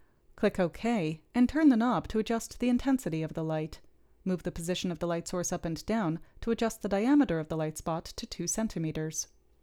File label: Gong Voiceover